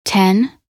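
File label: "10", English; minute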